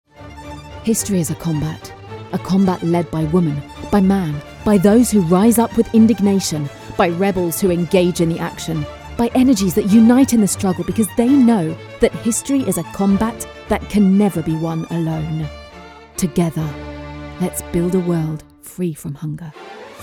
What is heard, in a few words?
VO ENG Indignation; Action Contre La Faim